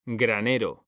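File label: "granero", Spanish, male; mekanta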